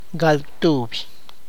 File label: "twenty one", tlacochahuaya